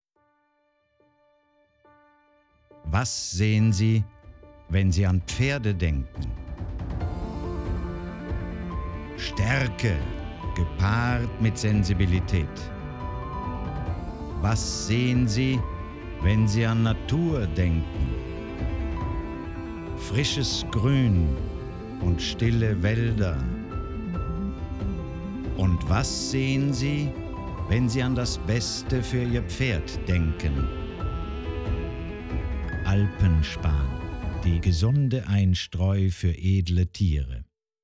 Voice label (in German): audio spot deutsch; alpenspan